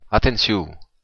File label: be careful, occitan